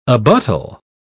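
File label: "abuttal", English, British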